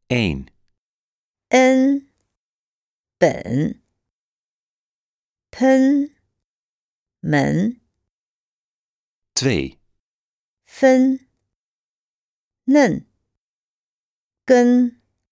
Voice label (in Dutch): uitspraakoefening